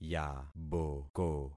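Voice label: "yaboko", concatenated syllables; Affixing experiment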